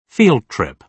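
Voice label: "field trip", British English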